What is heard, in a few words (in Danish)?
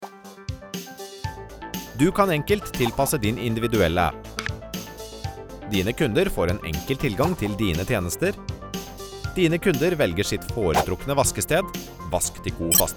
Norsk, speakere